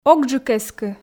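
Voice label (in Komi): огджык эскы, Komi, female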